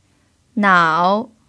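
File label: "nao" third tone, chinese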